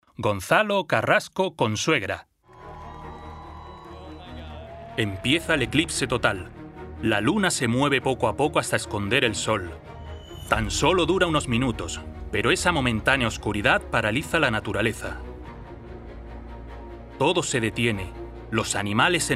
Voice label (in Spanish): Demo Documental